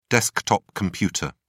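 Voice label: "desktop computer", British English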